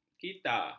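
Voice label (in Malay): "kita", Malay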